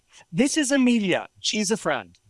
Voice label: Voice Acting es M

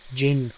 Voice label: Voice Acting, es

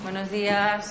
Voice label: Voice Acting es F

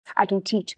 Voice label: Voice Acting es F3

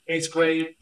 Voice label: Voice Acting es M

